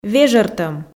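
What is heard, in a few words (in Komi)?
"вежӧртӧм", Komi, female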